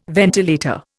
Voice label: "ventilator", Indian